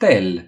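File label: "whisk", Romanian; gcompris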